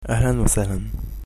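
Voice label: welcome, Egyptian Arabic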